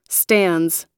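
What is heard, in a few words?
"stands", US English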